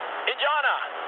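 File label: German enemy detected mood low, speech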